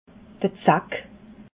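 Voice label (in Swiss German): "zack", Alsatian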